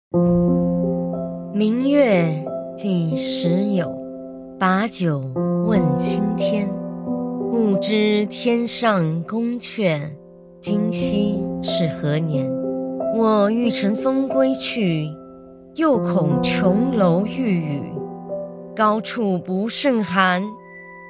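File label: Chinese narration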